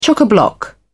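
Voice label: "chock-a-block", British English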